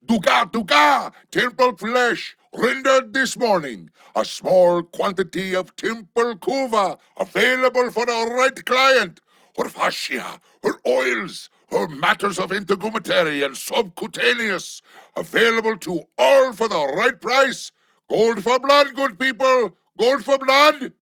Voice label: Ost Male en; Cetus